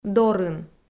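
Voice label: "дорын", Komi, female; kpv audio dict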